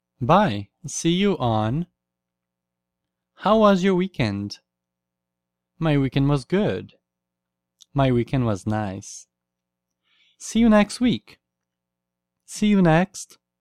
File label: Classroom English